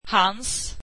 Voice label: swedish his